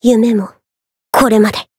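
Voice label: Voice finish Japanese; harle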